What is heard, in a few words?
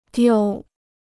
"丢", Chinese